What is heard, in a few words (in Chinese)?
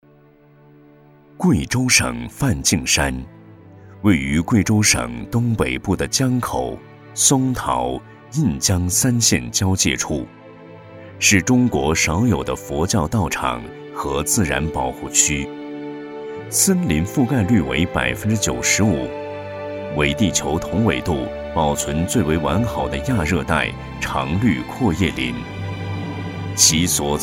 纪录片 自然科教, 男; 跨界科考